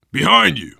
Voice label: im with you, voice, biker; Left 4 Dead 2; left4dead2 dlc3; survivor

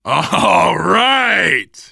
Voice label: reaction positive, voice, biker; Left 4 Dead 2; left4dead2 dlc3; survivor

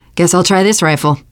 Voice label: take assault rifle, teen girl; player; survivor; voice

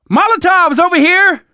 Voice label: spot grenades, survivor voice; Left 4 Dead 2; left4dead2 dlc3

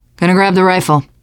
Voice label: take assault rifle, teen girl; player; survivor; voice

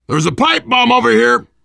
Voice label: spot grenades, voice, biker

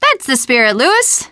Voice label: second saferoom, teengirl, voice

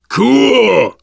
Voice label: reaction positive, voice, biker; Left 4 Dead 2; survivor

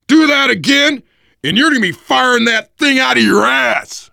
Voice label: friendly fire, voice, biker; Left 4 Dead 2; left4dead2 dlc3; survivor

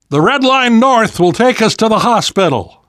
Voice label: world hospital, namvet, survivor voice

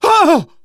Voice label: reaction startled, manager, survivor, voice; Left 4 Dead 2; left4dead2 dlc2

